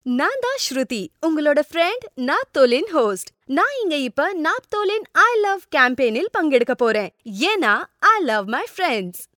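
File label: Tamil commercial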